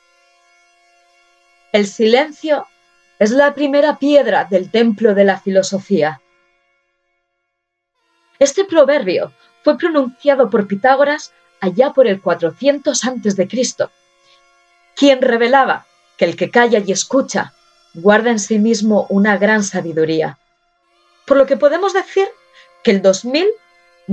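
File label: European Spanish Documentary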